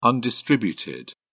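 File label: "undistributed", British English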